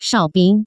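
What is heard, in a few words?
shao bing, Taiwan Mandarin; drink order; tian du; bing kuai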